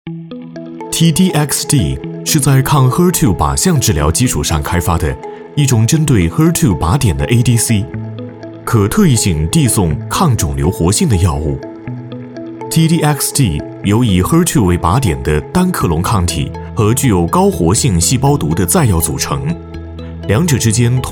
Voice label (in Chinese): 男 产品介绍 科技磁性, 大气主流 质感磁性; 靶向治疗